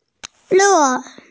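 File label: "le4", Mandarin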